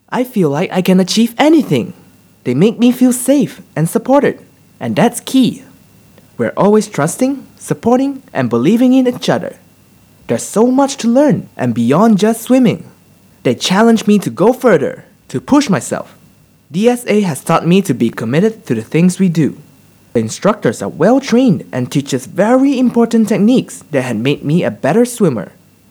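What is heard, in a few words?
English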